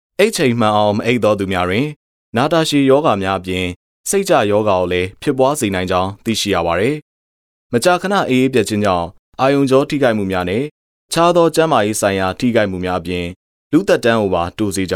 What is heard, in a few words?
Burmese Voice Sample Male